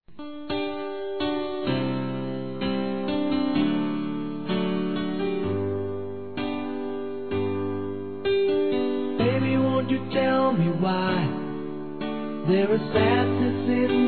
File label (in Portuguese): ROMANTICO SUAVE F; Telemensagens; Românticas